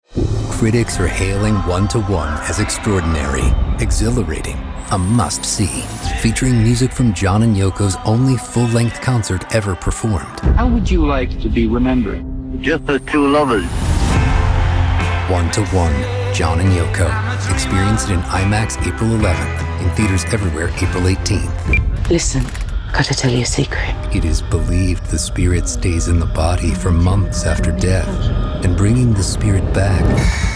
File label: Trailers; One to One: John & Yoko; Bring Her Back; Sacramento; Warfare; How To Train Your Dragon; The Accountant 2